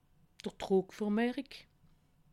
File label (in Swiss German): "druckvermerik", alsace